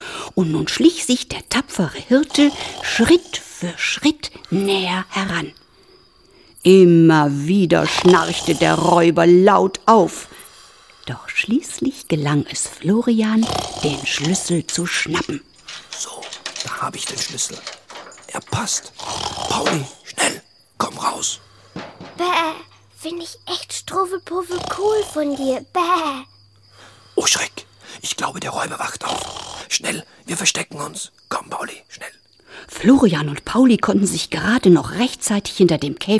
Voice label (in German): Hoerspielszene